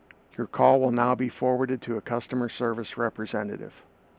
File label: Voice Forward Notice Message